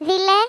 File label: "coward", Greek; Worms Armageddon